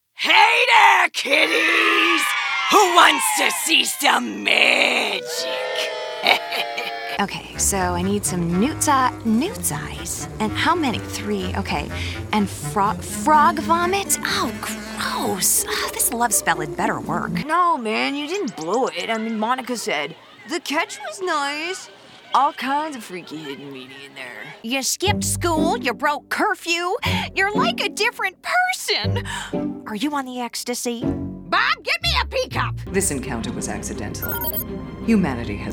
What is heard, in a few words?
Animation Voiceover